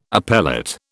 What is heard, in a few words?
"appellate", British